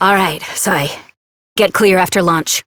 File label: Operator Voice; New War; en